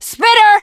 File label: spitter, teengirl; video game; source engine; left4dead2; survivor